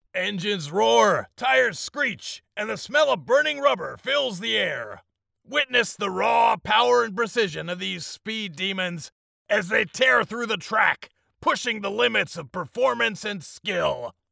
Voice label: foreigner